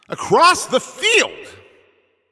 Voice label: Announcer Long Hit To Other Side